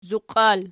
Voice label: "zuqial", Archi